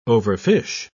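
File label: "overfish", British English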